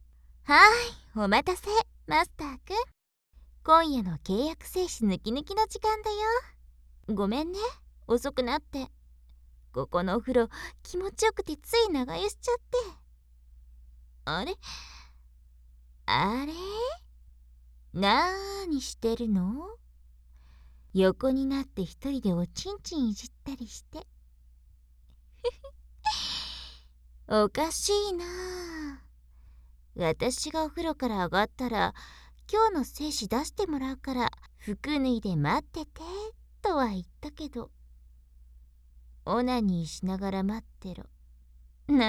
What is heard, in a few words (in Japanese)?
密着添い寝でささやき; オナサポ♪